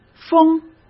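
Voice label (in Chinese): "风", Mandarin Chinese; hsk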